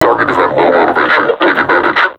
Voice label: taunt, vo combine suppressor; Half Life Alyx